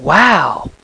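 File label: "wow", male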